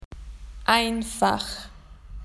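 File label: "easy", Australian English; PictureDictionary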